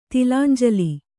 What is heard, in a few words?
"ತಿಲಾಂಜಲಿ", Kannada